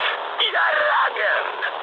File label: damaged pilot, mood high, speech ru; War Thunder